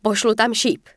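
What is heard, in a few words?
Archer Yes Attack, Czech; wowpreklad; czwow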